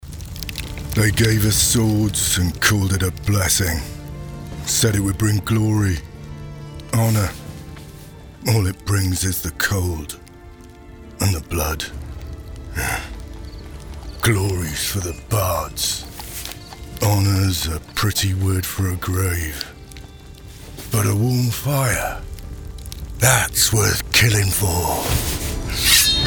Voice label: Medieval Knight / Grizzled, World-weary, Stoic, Gruff; Character Animation Demo